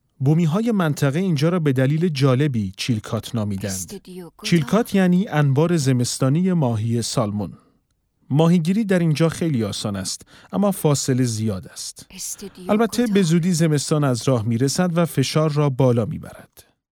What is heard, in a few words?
documentary